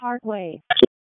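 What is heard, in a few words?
Raleigh Police Special Operations Tactical, p25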